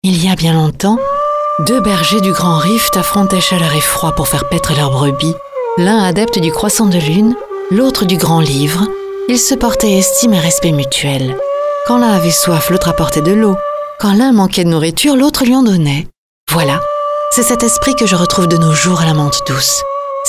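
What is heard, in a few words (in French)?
spot, spots radio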